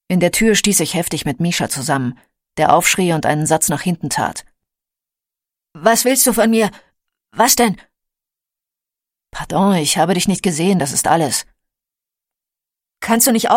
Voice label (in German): Schauspiel, Lebendig